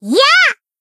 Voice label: Battle Shout; Ibuki